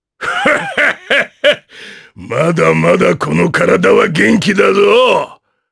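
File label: vox dialogue, voices, Japanese; Ricardo; kingsraid audio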